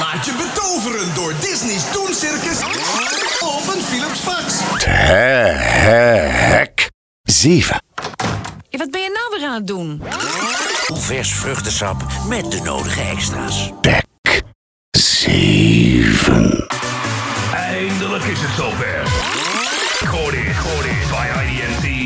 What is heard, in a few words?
Radiospot